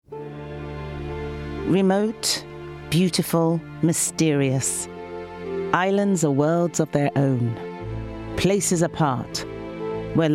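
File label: documentary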